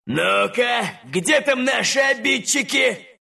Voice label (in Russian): respawn ru; Dota 2